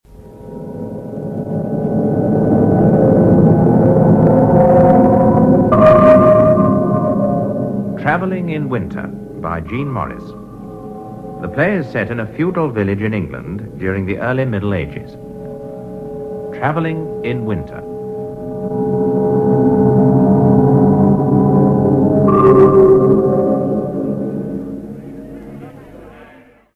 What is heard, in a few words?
voice over; Intro to titles